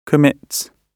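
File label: "commits", British English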